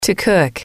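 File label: "to cook", English, female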